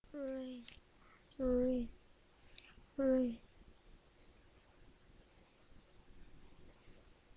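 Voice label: "ring", spoken word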